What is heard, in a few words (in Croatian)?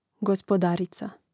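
"gospodarica", hrvatski; mreznik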